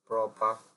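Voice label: "proper", Nigerian Pidgin, male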